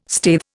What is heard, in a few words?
"staithe", Indian